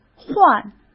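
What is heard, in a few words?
"患" Mandarin Chinese; chinese flashcards; hsk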